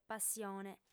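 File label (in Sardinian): "passione", female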